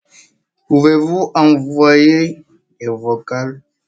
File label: voice message